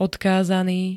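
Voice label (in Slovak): "odkázaný", slovenské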